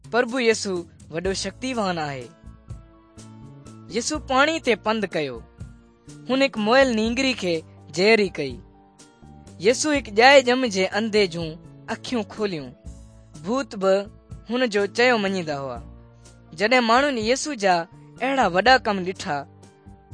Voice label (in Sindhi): good news sindhi dukslinu, drama